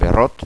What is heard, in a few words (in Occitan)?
"berot", gasconha